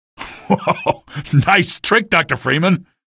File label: notice grav gun kill, male; video games; npc; source engine; episodic; episode 1